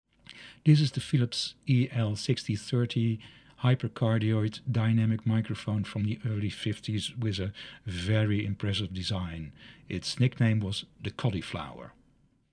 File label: Philips EL 6030 sound UK